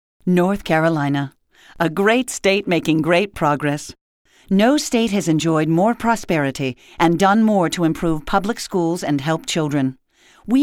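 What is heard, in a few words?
commercial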